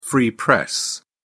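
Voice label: "free press", British English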